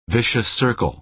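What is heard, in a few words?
"vicious circle", American English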